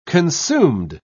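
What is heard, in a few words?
"consumed", British English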